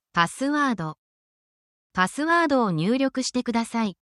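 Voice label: Japanese word, essential Japanese phrases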